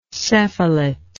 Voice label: "cephalate", English